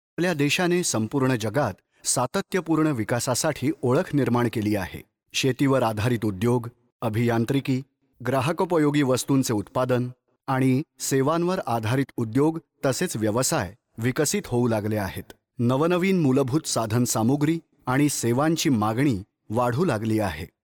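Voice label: Marathi India male narrative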